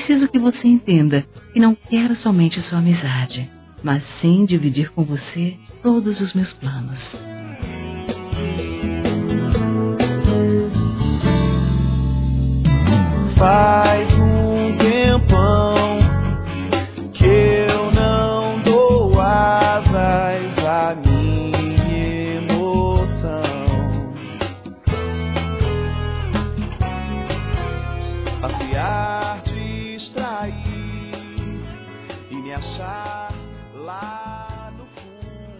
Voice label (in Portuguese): Declaração para uma(o) Amiga (o) Romântica Feminino; Telemensagens; Sensuais